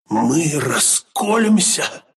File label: death, ru; dota2